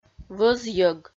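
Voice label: "вӧзйӧг", Komi, female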